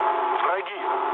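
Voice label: Russian enemy detected mood low, speech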